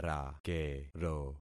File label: "rakero", concat syllables; Affixing experiment